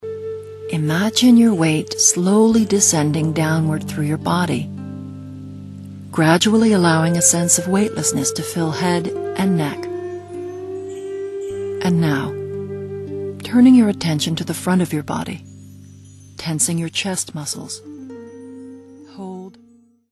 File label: Adult tenson relaxation